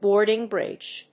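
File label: "boarding bridge", English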